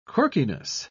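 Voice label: "quirkiness", English, uk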